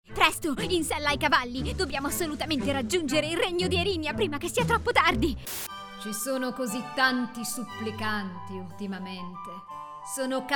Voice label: italian animation

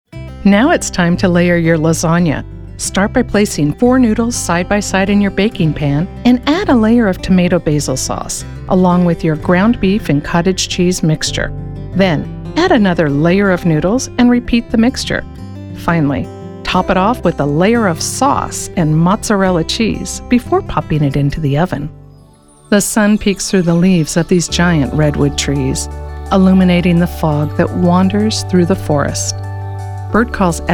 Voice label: english american narration

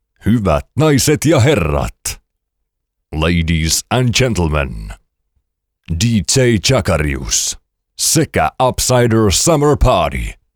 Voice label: finnish promos

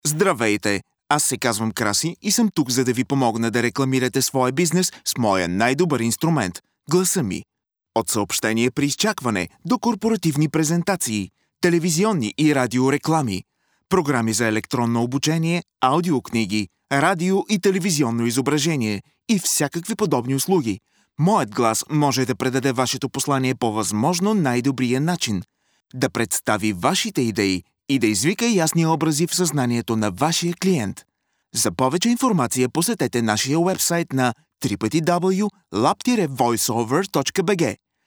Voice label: bulgarian commercial

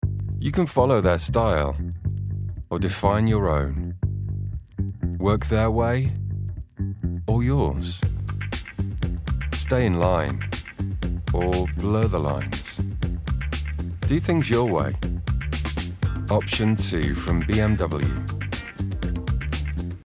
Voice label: english british automotive

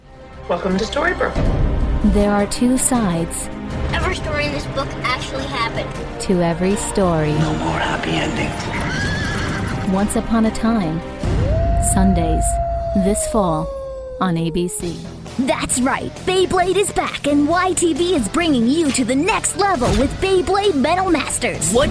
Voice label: english american promos

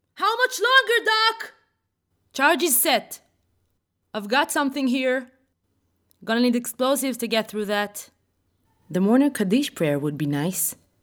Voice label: Hebrew video games

